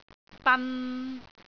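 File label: "ban3", Cantonese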